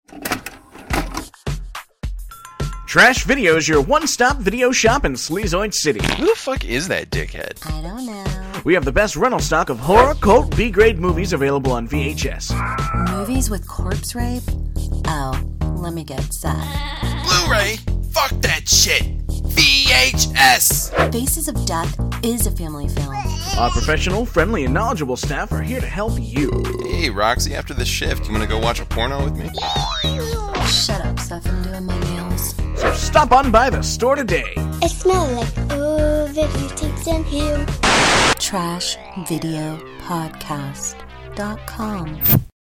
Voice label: podcast commercial; trash video

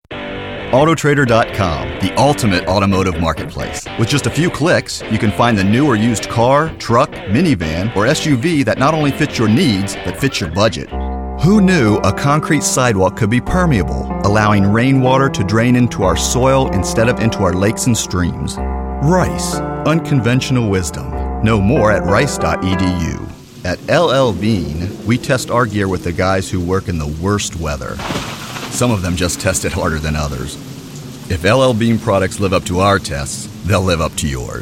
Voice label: commercial

